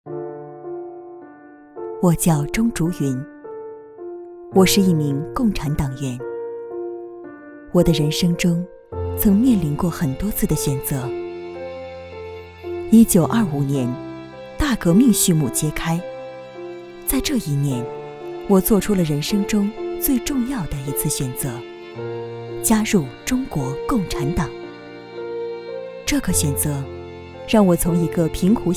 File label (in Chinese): 女 红色解说类 红色传奇故事; 钟竹筠